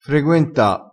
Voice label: "freguenta", crespinese

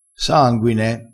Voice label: "sanguine", crespinese

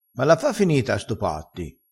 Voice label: "stopassi", vocabolario dialettale crespinese

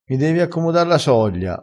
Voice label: "a me me lo puppi", Crespinese

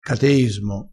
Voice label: "cateismo", crespinese

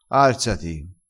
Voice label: "pare fatia", crespinese

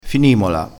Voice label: "finimola", crespinese

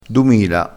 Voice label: "dumila", crespinese, vocabolario dialettale

